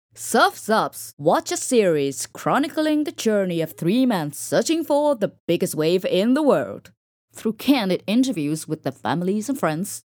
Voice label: Gritty Strong